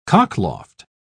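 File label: "cockloft", British English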